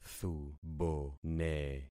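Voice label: "thubone", concatenated syllables; Affixing experiment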